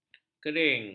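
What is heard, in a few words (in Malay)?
"kering", Malay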